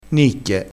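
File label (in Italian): "nicchie", dialettale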